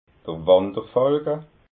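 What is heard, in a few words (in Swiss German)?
"wanderfalka", Alsatian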